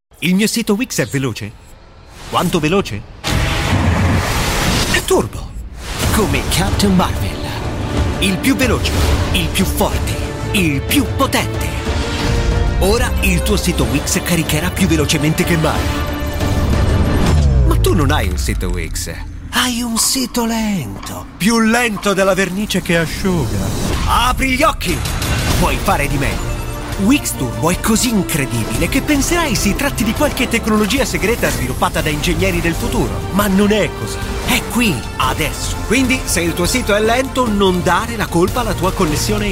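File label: M, Italian, male, commercial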